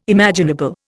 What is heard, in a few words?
"imaginable", Indian